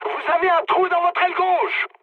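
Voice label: left wing, mood high, French; War Thunder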